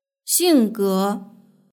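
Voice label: "xingge" Chinese word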